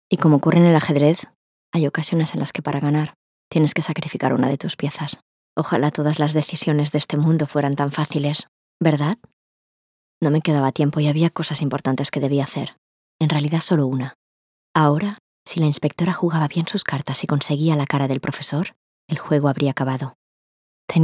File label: Character, spanish voice; Tokio